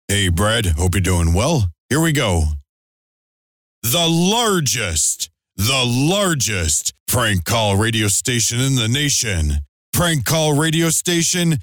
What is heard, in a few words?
Drop Dry Reads; Phone Losers of America Media Pack 2014; Live Show Sounds; Drops